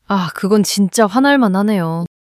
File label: anger; monologue